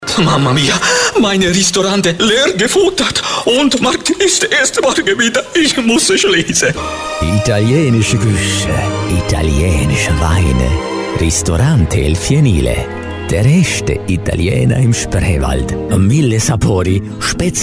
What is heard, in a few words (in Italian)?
falsetti italo mix